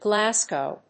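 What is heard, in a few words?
"Glasgow", English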